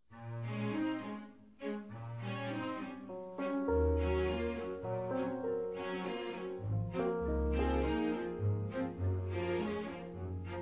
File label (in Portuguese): ESPOSO SUAVE F; Aniversários; ANIVERSARIO romantico; fem